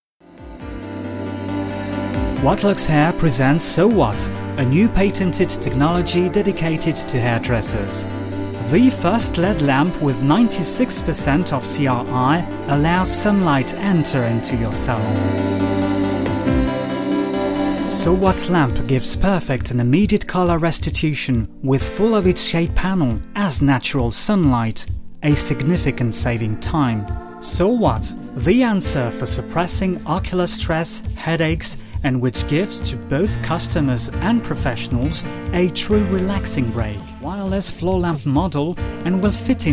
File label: Corporate Relaxed and convincing tone